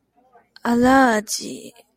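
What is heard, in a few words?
"allergy", Japanese, female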